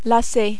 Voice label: "lasser", French

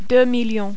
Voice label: "2 million", French

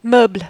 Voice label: "meubles", French

